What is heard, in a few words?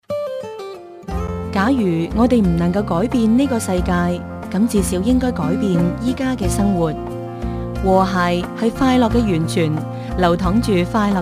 cantonese chinese voiceover locutora china